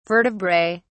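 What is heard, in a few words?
"vertebrae", British English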